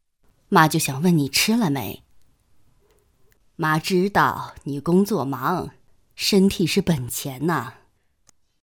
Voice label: 角色妈妈电话试音

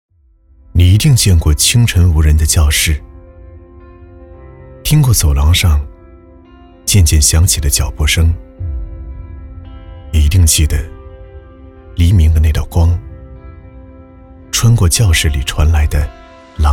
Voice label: 男 专题 温情舒缓; 中考加油

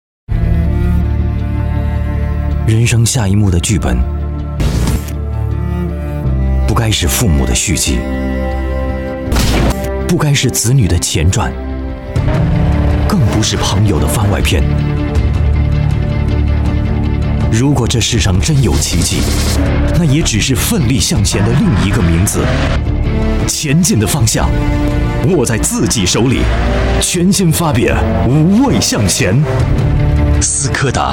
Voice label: 男 广告; 斯柯达 Fabia; 国语男声配音

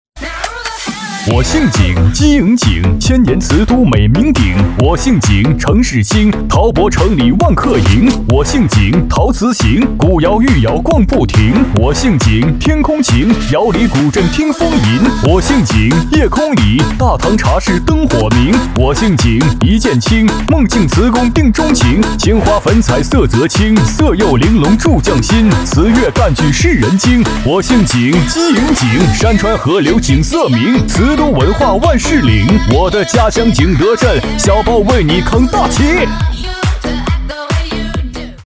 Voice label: 男 喊麦; 百家姓系列; 景德镇

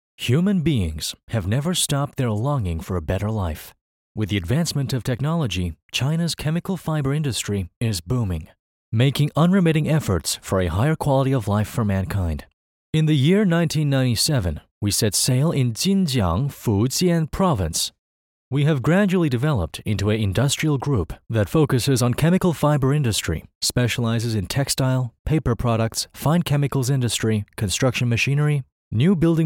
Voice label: 化纤公司宣传片

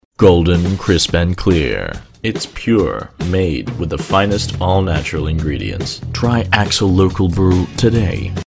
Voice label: 外籍英男, 洗发水广告

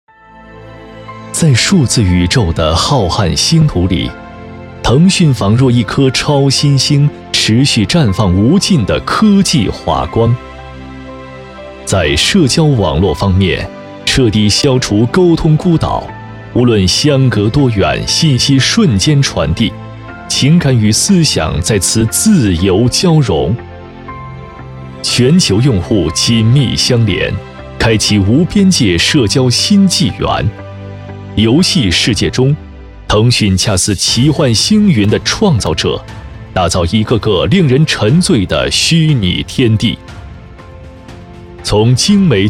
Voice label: 男 宣传片 科技感 未来感 自然讲述; 腾讯生态

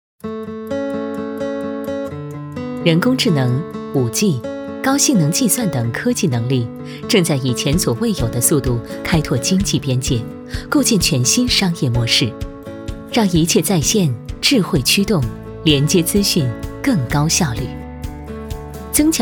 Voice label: 女 专题 科技感 科技变革 AR已来

